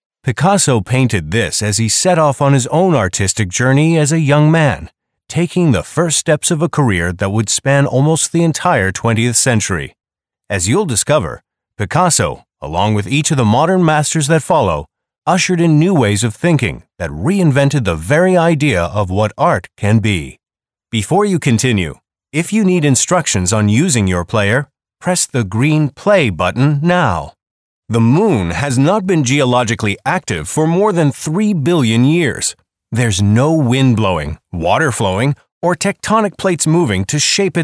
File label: Audio Tour; Full Range Voice Over Demos